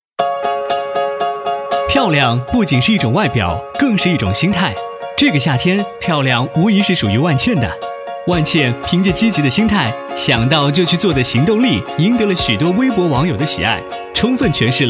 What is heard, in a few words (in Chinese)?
男,品牌; 品牌粉丝; 万茜别克昂科威S